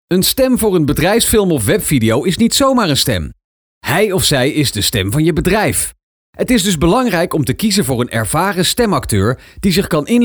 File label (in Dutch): BEDRIJFSFILM